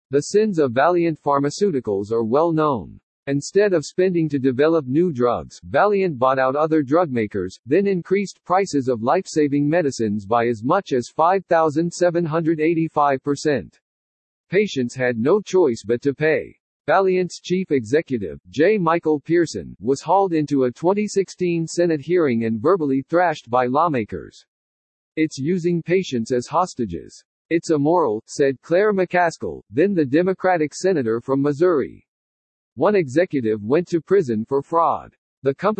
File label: en-US Standard; nyt-en-US-Standard-B